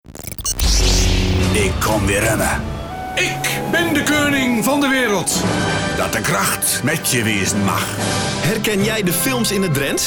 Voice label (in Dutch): PROMO ACTIE; ZBA; BIOS